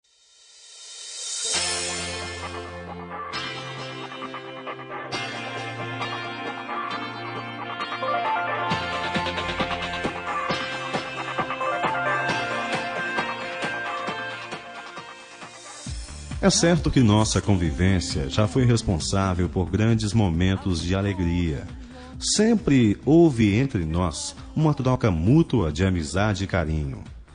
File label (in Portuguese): primo masc